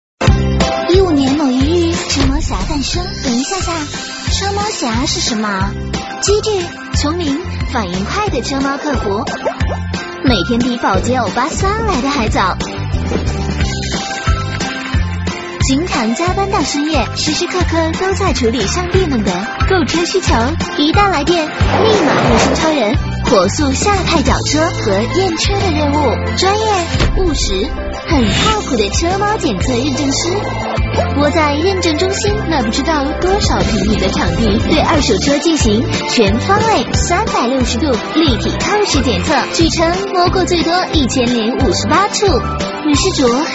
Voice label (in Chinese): 女, 广告, 轻松风格; 车猫侠